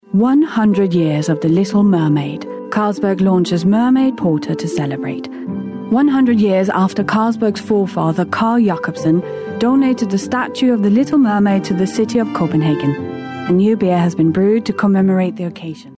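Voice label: Danish, female, English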